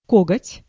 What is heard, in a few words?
"kogotj", Russian